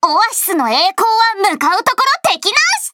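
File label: start, Japanese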